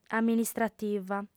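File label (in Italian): "amministrativa", sarda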